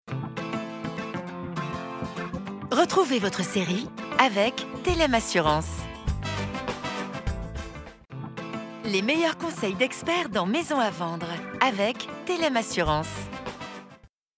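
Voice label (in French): Souriante, voix off féminine; Thelem; Bb Tv; Banques / Assurances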